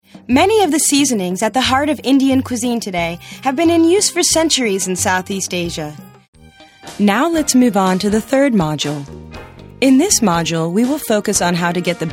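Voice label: American, narration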